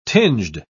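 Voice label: "tinged", British English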